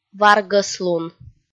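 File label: "варгӧслун", Komi, female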